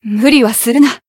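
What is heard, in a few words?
heal, Japanese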